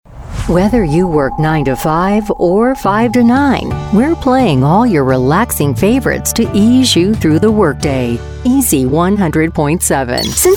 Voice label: Imaging